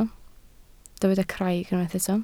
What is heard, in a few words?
"craig", Welsh